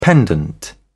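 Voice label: "pendant", English, British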